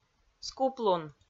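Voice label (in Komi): "скуплун", Komi, female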